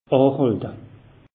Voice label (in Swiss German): "ahalte", alsace; meteo